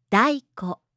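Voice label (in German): "Daiko", japanische Mädchennamen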